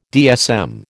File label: "DSM", American